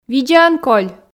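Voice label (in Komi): видзаӧн коль, Komi, female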